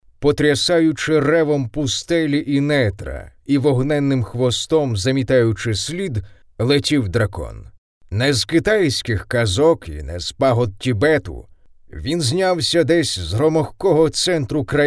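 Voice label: Ukrainian, male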